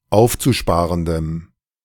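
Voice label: "aufzusparendem", German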